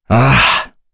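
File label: Vox Happy, voices heroes English; Kain; kingsraid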